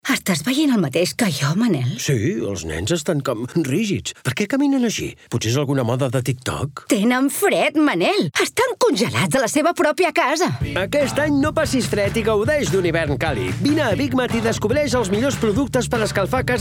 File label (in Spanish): CALEFACCION CAT; BIG MAT; MC IBIZA